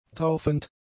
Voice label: "taufend", German; adjectives